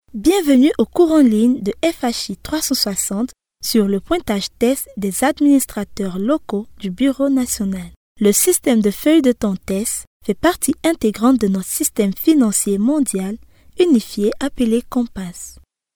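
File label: French, African, female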